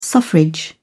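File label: "suffrage", British English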